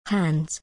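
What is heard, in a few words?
"hands", English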